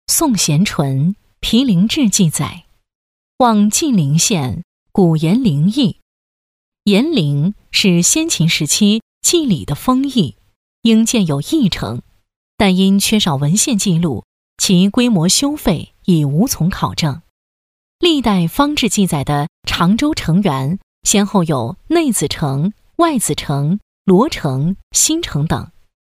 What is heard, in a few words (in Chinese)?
女, 纪录片; 历史文献